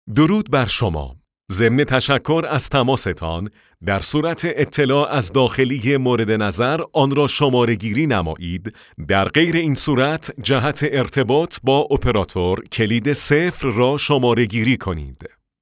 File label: men